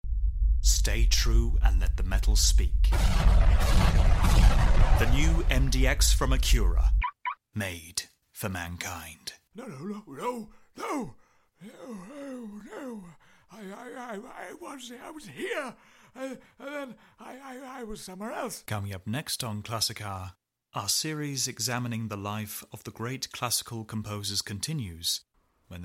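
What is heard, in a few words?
British English general; GoLocalise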